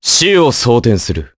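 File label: Vox Skill, Japanese; voices heroes jp; Crow; kingsraid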